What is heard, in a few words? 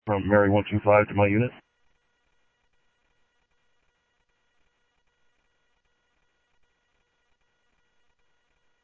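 Sheriff Lake Patrol, scanner audio trunk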